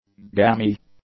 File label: "gammy", English; adjectives